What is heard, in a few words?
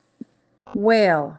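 "whale", English; useful words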